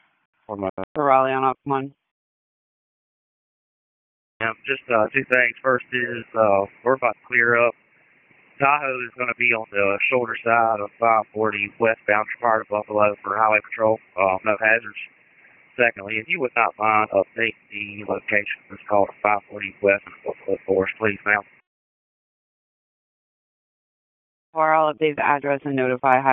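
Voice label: FIRE Operations, p25; FIRE OPS 1; wake p25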